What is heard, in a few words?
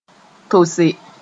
"tose", Mooré